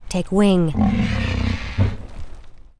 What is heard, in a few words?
hippogryph with rider yes, en